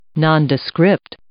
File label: "nondescript", English